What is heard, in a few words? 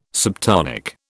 "subtonic", British